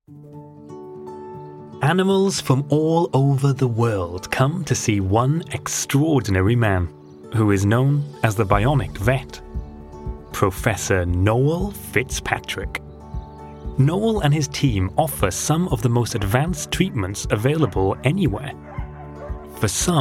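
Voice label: British English, Documentary; GoLocalise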